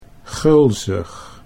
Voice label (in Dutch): "gulzig", Dutch